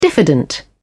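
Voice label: "diffident", en, British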